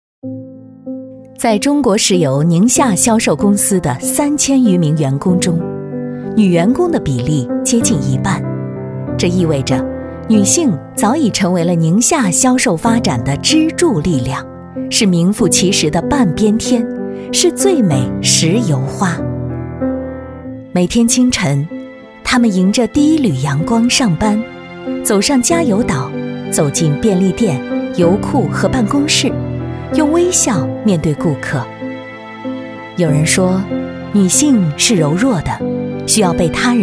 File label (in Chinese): 女; 最美石油花宁夏